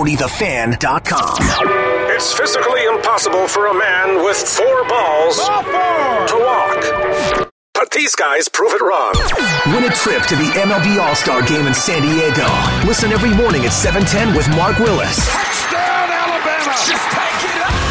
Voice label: radio men sports, radio imaging